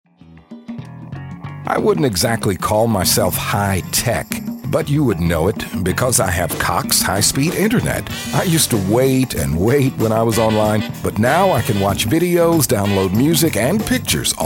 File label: real people midlife